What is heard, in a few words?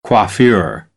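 "coiffure", American English; AmericanBritish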